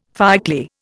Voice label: "fireclay", Indian